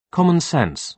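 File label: "common sense", British English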